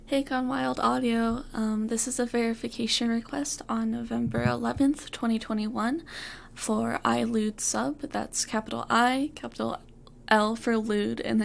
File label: Female